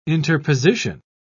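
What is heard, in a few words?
"interposition", British English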